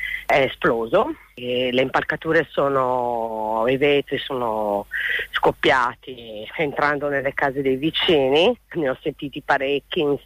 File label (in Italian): testimonianza esplosione